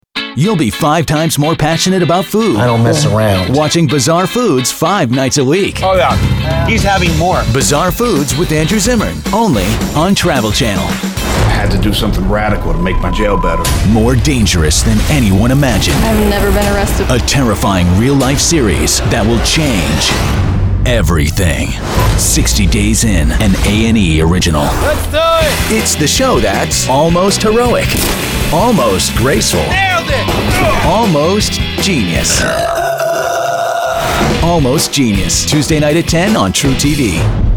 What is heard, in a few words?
promo, men